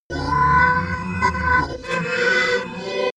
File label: crazy talk